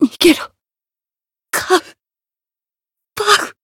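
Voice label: retire, Japanese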